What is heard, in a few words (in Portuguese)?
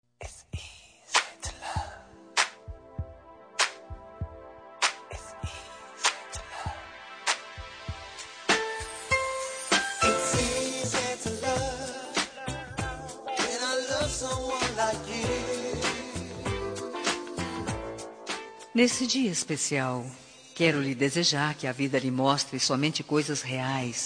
prima fem